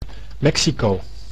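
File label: "Mexico", Dutch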